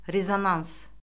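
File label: "rezonans", Azerbaijani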